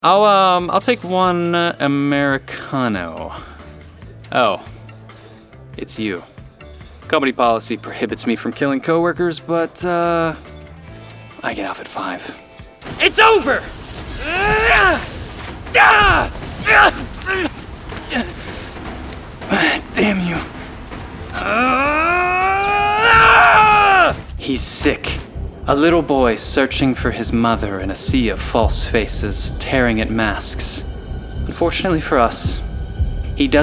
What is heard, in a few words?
interactive, voiceover